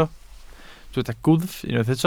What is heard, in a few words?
"gwddf", Welsh